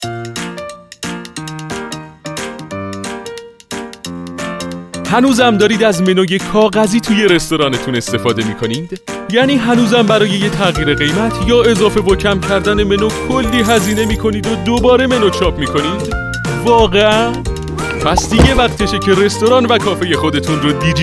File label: narrator